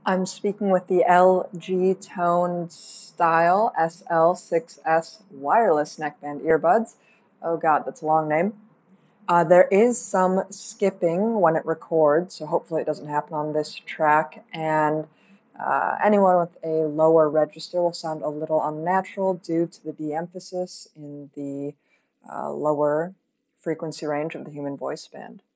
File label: microphone demo; LG Tone Style SL6s